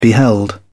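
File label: "beheld", en, uk British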